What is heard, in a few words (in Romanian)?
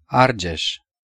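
"Argeș", Romanian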